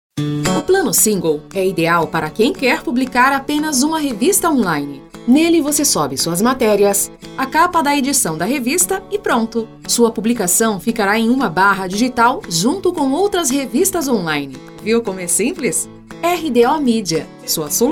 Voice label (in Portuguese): SPOT SIMPLES; spot comercial; PLANO SINGLE